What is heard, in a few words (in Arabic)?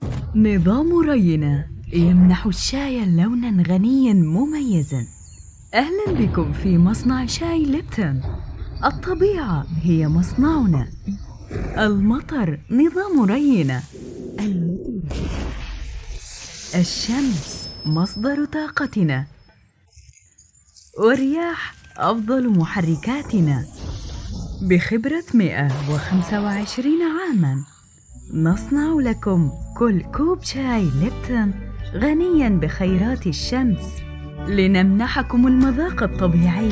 اعلان شاي; ليبتون